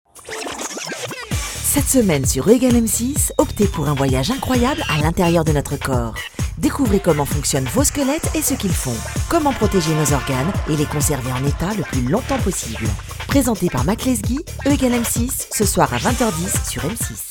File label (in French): Naturel souriant dynamique